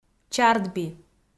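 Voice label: "чардби", Komi, female; kpv audio dict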